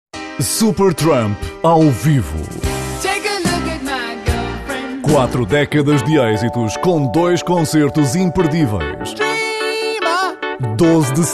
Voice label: portugal radio ad